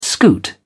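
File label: "scoot", British English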